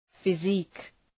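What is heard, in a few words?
"physique", English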